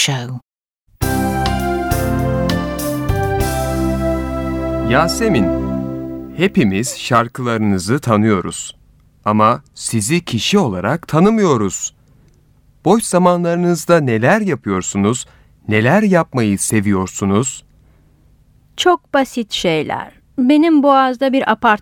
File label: Dialogue, Turkish; Complete Turkish Teach Yourself